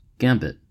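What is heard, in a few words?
"gambit", American English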